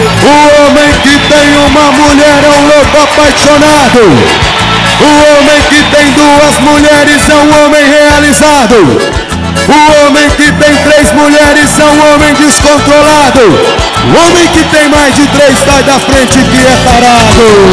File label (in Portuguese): Versos narrados, Rodeios; Esportes; tarado